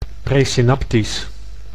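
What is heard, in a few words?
"presynaptisch", Dutch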